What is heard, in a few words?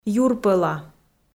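"юрпӧла", Komi, female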